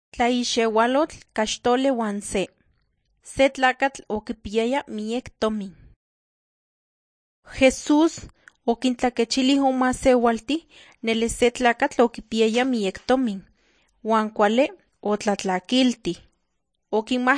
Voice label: nahuatl guerrero tlapa; the bible league nt drama